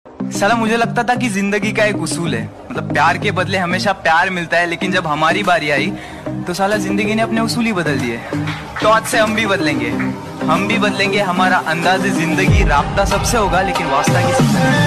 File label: Shayari Ringtone